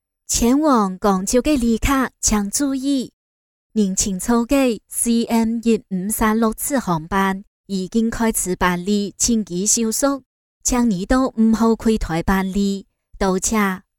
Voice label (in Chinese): 韶关客家话